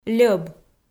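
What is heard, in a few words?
"льӧб" Komi, female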